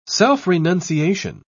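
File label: "self-renunciation", British English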